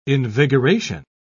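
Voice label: "invigoration", British English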